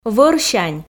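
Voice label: "вӧрсянь", Komi, female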